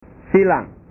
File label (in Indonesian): "silang", bahasa